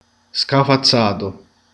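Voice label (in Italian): "schiacciato", Italian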